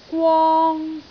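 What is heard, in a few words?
"gwong1", Cantonese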